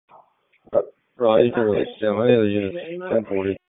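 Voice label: Police Southeast District Dispatch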